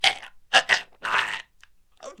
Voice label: CHOKE, pain; player; Dystopia